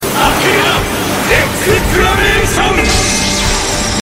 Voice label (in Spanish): exclamacion de athena; saint seiya soul of gold; athena exclamation; exclamacao de athena